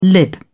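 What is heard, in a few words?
"lib", British English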